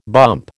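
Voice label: "bump", American English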